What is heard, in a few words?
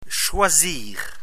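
"choisir", French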